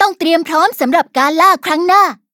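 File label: levelup, Thai; Cookie Run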